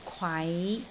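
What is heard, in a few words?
"kwai5", Cantonese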